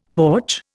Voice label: "porch", Indian; Dictionary